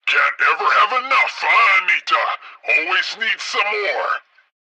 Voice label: tutorial chopper; midwave; Blind Drive